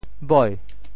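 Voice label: "boi2", Teochew; 潮州; 嫌货正是买货人; 会嫌货的才是真正识货的买货人; 俗语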